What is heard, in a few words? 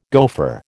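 "gofer", American English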